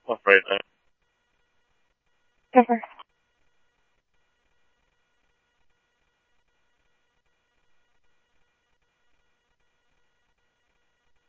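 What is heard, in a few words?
police radio dispatch, ScottsdalePolice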